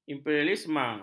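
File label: "imperialisme", Malay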